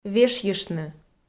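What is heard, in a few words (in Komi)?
"вешйыштны", Komi, female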